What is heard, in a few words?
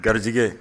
"garijɛgɛ", Bambara